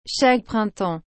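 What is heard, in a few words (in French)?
"chaque printemps", French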